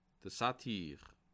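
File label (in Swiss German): "satire", Bas Rhin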